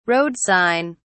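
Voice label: "road sign", British English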